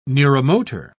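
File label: "neuromotor", British English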